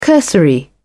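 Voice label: "cursory", British English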